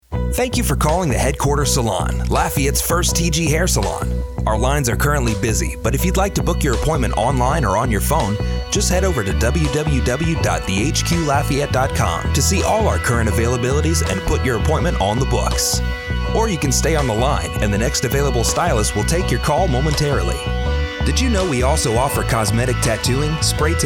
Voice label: On-Hold; Male

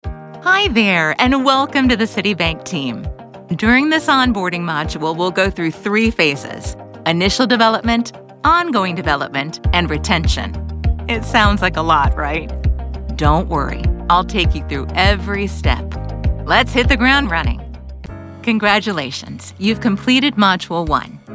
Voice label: Narration Voiceover; Female

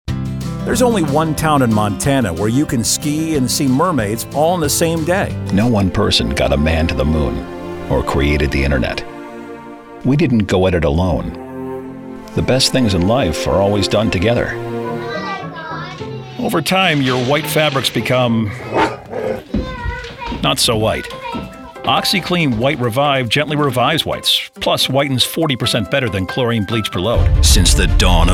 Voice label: Commercial; Male Voice Talent; Commercial Demo

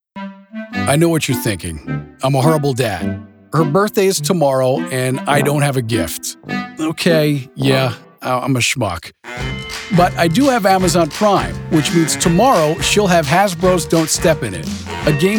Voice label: Commercial Voice-Over; Male

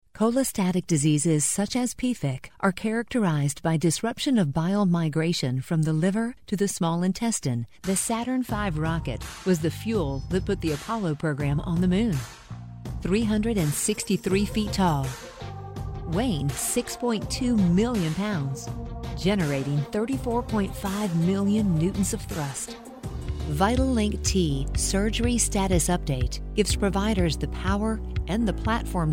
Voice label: Narration; Female Voice Talent

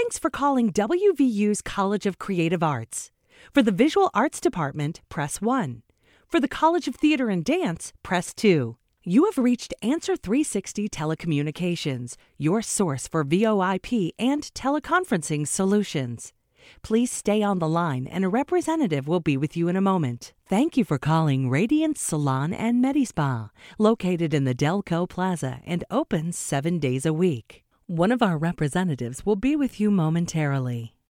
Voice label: Phone System Voicemail On Hold Messaging; Female

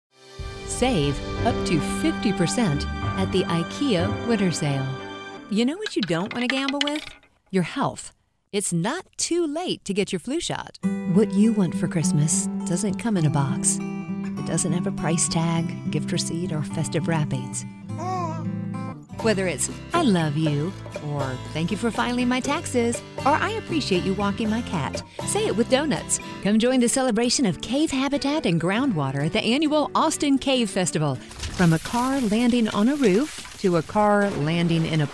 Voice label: Commercial; Female Voice Talent

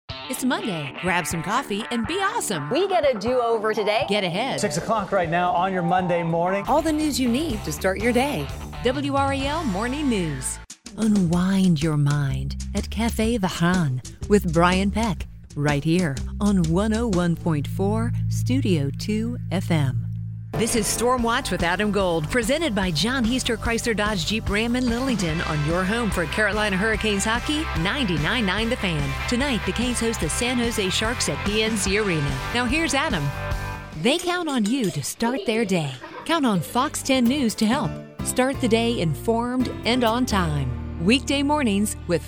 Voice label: Imaging; Female Voice Talent

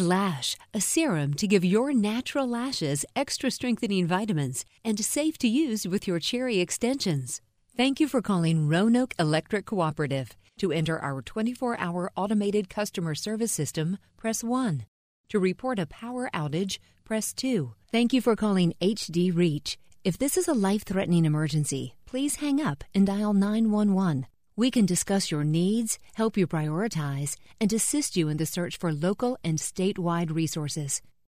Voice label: On Hold; Female Voice Talent

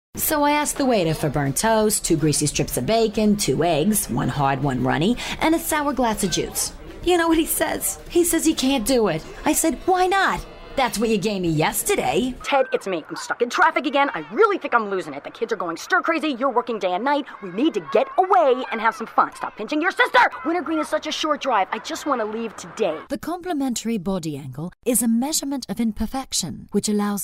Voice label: Character; Female Voice Talent

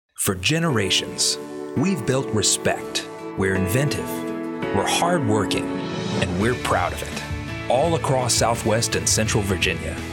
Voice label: Imaging; Imaging Demo; Male Voice Talent

